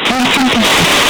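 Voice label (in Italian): "metti", Italian; Metti il dito…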